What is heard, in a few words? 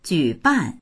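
"ju3ban4", Chinese; 举办; 行う（活動を）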